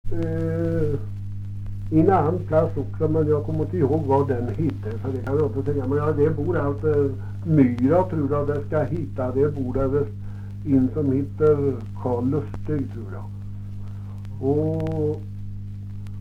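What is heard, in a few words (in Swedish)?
Lerdal Dalsland, dialekter, Svenska sagor; Folkminnen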